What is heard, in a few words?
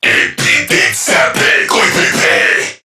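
Attack Crewmen, English; Warframe; CorpusCrewmen; CrewmanA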